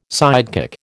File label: "sidekick", American